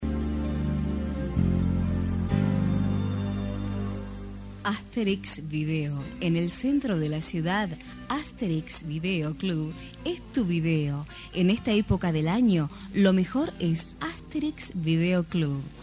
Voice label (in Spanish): Comercial Radio; Asterix Video (RadioLaFalda) Commercial